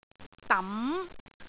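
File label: "dam2", Cantonese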